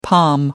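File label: "palm tree", British English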